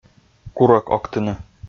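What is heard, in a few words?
"курӧк октыны", Komi-Zyrian, male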